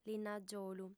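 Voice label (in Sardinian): "linnagiolu", Sardinian, female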